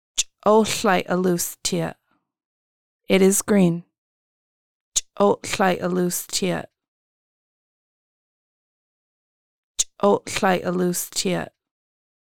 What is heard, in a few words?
It is green c̓uɬəʔalus tiʔəʔ, Lushootseed; cedar